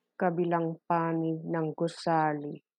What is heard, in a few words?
Tagalog